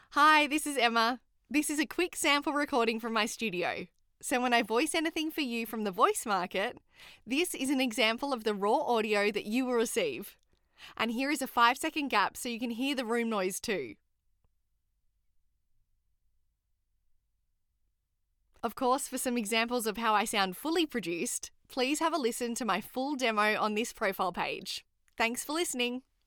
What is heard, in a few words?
Studio Sound Check